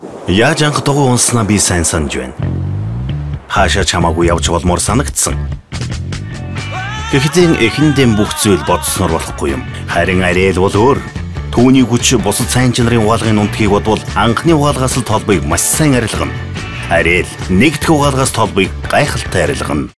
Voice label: Mongolian male

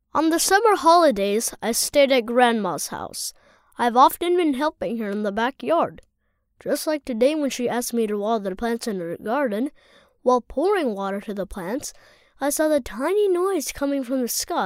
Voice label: Male - English - American

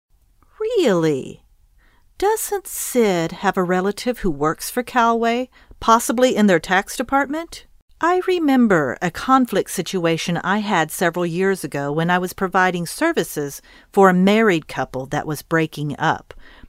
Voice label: English - American

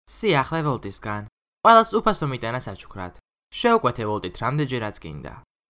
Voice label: Georgian

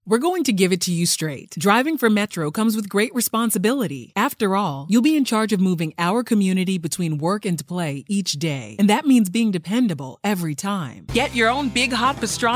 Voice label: English - American

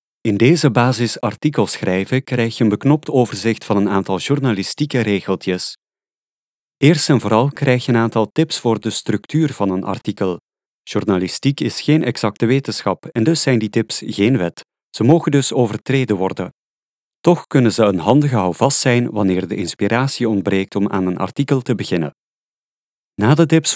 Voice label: Dutch - Flemish

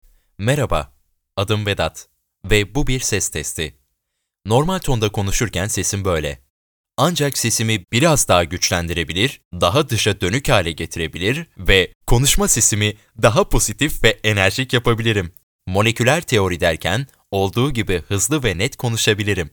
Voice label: Turkish male

